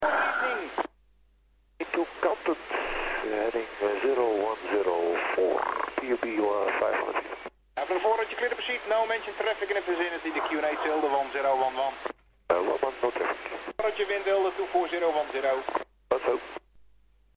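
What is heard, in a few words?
lifeliner scrambled kantens tower 118.7 MHz; ehgg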